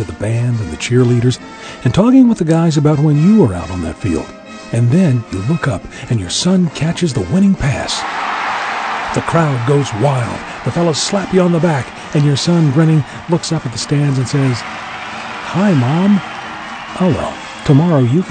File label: Voiceover Radio Spots High School Football